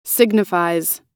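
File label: "signifies", American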